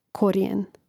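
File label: "korijen", Croatian; Mrežnik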